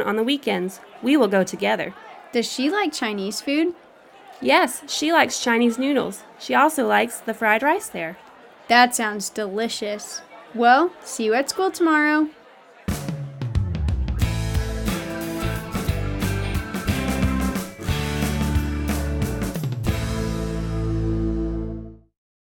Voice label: Conversation, English; Unit Six